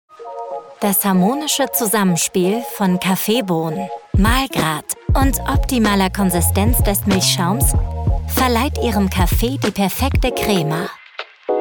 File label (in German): Werbung Barista Sprecherdatei